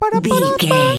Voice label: voice fun character cute cartoon